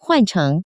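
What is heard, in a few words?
"huan cheng", Taiwan Mandarin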